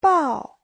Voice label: "bao", Chinese